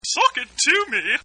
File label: Funny Voice Sock it to Me; Exclamations